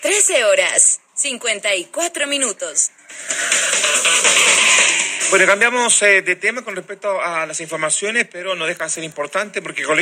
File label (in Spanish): Lectura comunicado Radio Bienvenida; Ingbell